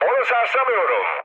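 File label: aircraft damaged, mood low, Turkish; War Thunder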